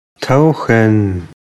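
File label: "tauchen", German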